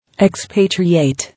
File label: "Expatriate", English